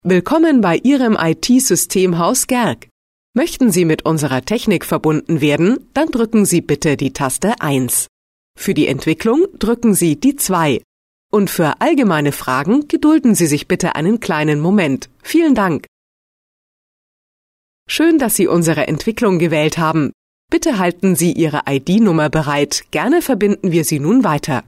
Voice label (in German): hoerbeispiele ivr